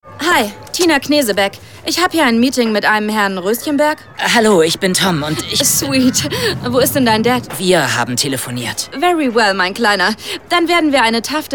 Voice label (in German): Hoerspiel